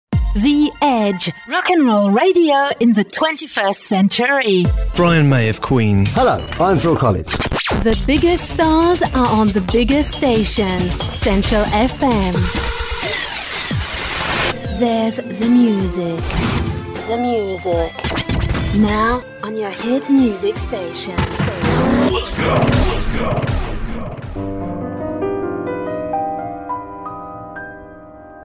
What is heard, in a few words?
radio imaging